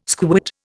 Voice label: "squit", Indian

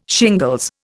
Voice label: "shingles", Indian

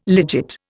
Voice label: "legit", Indian

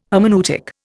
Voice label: "hermeneutic", Indian

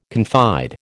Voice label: "confide", American

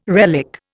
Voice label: "relic", Indian

